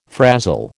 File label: "frazzle", American